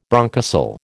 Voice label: "bronchocele", American

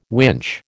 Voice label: "winch", American

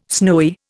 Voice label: "snowy", Indian

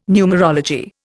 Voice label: "numerology", Indian

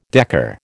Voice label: "decker", American

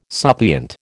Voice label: "suppliant", American

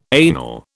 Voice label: "anal", British

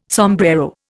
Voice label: "sombrero", Indian

